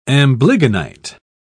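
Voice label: "amblygonite", English, British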